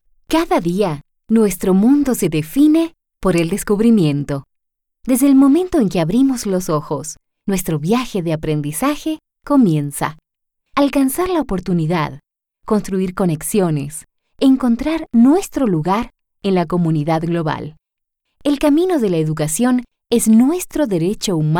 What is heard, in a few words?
Documentary Style Spanish